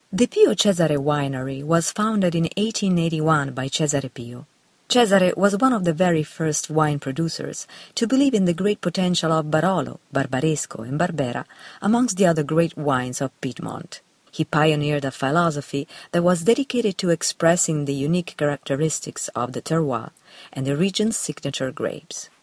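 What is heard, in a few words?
Italian English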